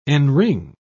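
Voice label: "enring", British English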